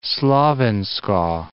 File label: "Slovensko", English